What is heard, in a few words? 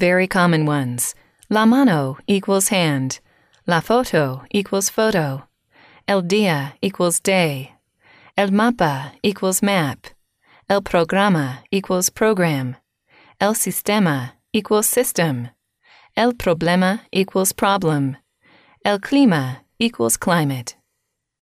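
how do I know if a word is masculine or feminine, female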